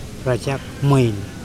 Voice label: "front mast", Mokilese

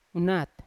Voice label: "unat" mountain, Yawa

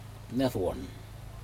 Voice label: "nedawun", foot, Aneityum

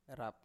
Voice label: "rap", Biak

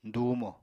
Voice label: "dùumo", Apatani

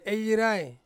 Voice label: "yirai", Ansus; to dig

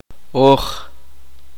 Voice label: uspanteko; nosotros exclusivo

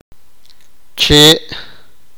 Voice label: sipakapense

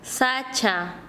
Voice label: Chanka, quechua; arbol

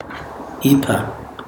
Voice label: "kill", Chikunda

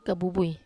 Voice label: "kabubui", Wamesa

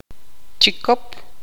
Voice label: tzutujil

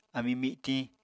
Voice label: "ami mitiñ", Apatani

